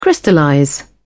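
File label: "crystallize", British English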